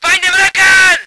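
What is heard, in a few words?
voice german vehicle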